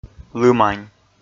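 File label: "лымань", Komi, male; kpv audio dict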